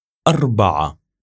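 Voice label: "arba3a", Arabic; numbers; raqm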